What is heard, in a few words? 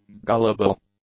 "gullible", english; adjectives